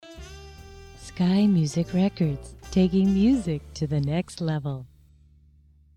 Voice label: Commercial